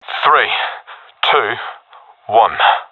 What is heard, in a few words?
countdown, professional epic; vo; Source Model Packs; Counter Strike Model Pack; CS2